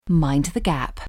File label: a single "Mind the gap between the train and the platform" by a female voice; metro